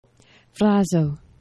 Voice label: "frazo", esperanto; geneva

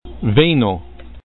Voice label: "vejno", esperanto

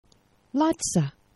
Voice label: "laca", esperanto; geneva

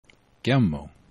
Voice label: "gemo", esperanto

